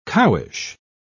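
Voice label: "cowish", British English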